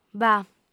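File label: "bah", lingua sarda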